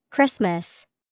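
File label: christmas, English vocabulary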